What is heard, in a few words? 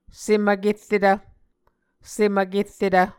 "sem vkerretv", Muscogee